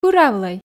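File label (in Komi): "пыравлӧй", Komi, female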